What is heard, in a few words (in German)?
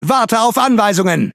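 Speech Pilots Fighter At position, DE; World Of Warships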